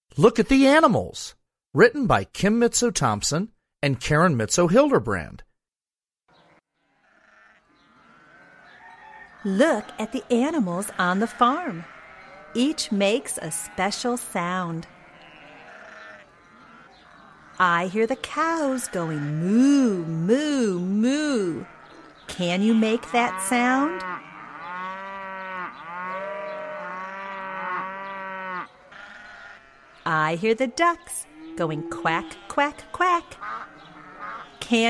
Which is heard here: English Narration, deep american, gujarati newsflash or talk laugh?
English Narration